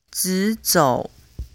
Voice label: chinese pronunciation zhi chi shi ri